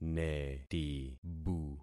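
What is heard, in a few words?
"netibu", concatenated syllables; Affixing experiment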